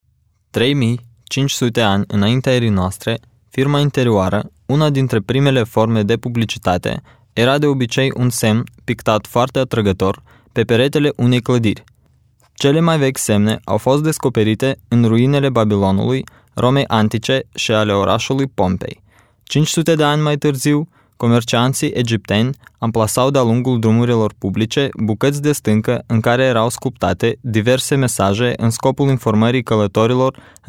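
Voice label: romanian male narration; voice demos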